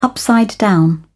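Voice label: "upside down", British English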